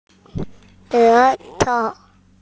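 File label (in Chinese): "she2tou5", Chinese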